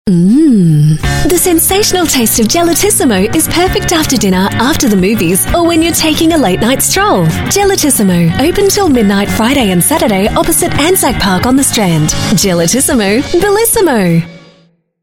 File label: AU MidAtlantic English, Australian Female; Gelatissimo